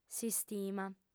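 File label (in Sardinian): "s'istima", Sardinian, female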